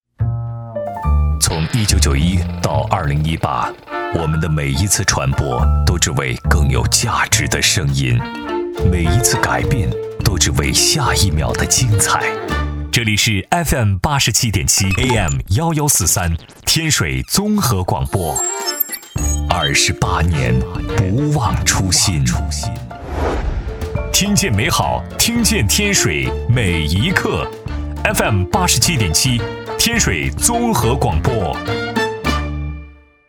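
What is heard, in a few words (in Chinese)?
台宣综合广播; 天水综合广播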